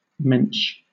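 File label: "mensch", British English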